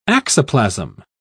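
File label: "axoplasm", British English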